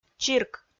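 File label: "чирк", Komi-Zyrian, female; kpv audio dict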